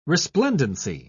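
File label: "resplendency", British English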